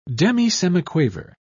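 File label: "demisemiquaver", British English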